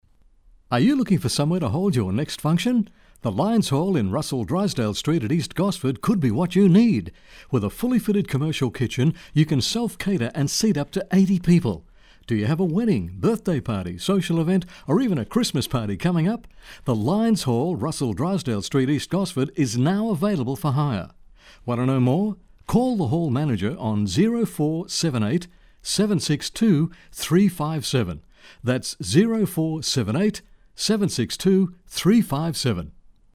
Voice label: spot; Lions Hall